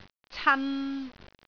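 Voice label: "can3", Cantonese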